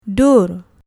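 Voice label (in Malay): "dur", Malay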